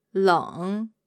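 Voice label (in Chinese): "leng", Mandarin Chinese, female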